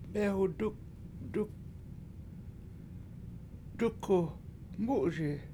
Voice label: "mEEhu dUku MU7uSi", washo; The Boy Is Running Fast